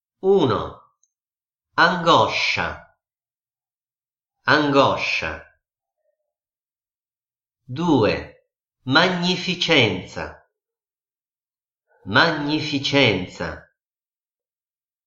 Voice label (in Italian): dettato consonanti